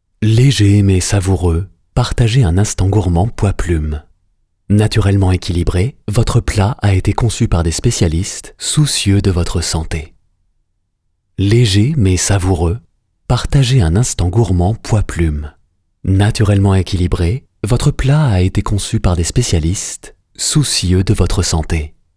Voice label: FR TV Spot